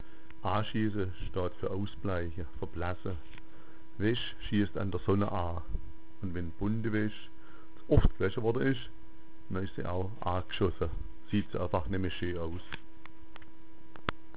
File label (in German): "aschieasa", schwaebisch